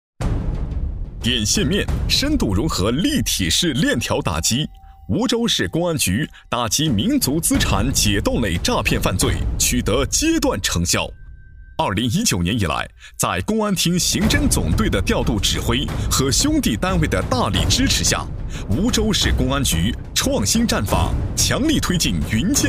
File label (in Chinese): 公安局干练大气